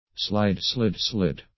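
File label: "slide slid slid", English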